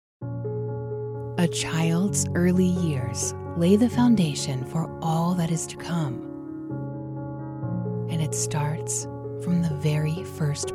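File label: female, American; lektoring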